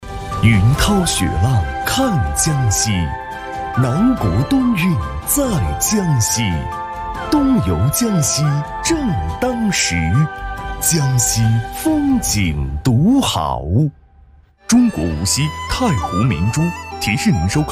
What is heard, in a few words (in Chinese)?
江西文旅宣传; 国家记忆